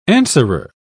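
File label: "answerer", British English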